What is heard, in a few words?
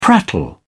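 "prattle", English, British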